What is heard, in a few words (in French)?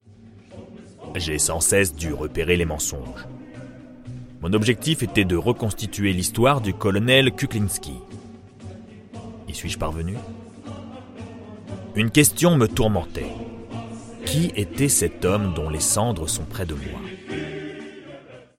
docu tres grave naturel; voix off masculine; voix off masculine naturelle, posée, souriante